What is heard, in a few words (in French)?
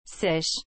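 "seiche", French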